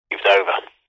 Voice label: technology cb radio male says its over speaker pov; zapsplat